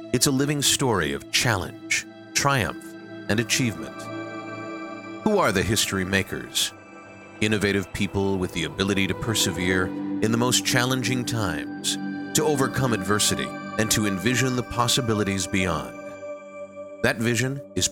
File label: narration